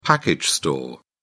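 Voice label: "package store", British English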